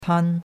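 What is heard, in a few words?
"tan1", Mandarin Chinese; Cours-de-Chinois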